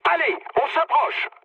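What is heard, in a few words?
near waypoint, mood medium, French; War Thunder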